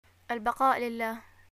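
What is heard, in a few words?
greeting death, Yemeni Arabic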